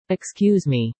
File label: excuse me robot